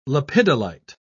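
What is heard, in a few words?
"lepidolite", British English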